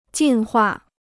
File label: "进化", Chinese